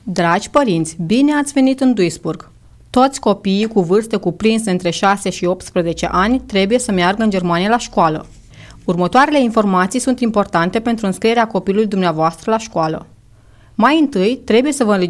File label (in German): Sprachausgabe - Rumaenisch